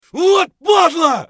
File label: bear battlecry; eft